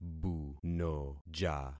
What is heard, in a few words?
"bunoja", concat syllables; Affixing experiment